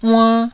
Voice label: "uann1", taiwanese